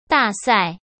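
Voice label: "大赛", Mandarin Chinese; chinese flashcards; hsk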